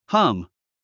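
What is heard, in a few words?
"hum", English; 発音 hʌ’m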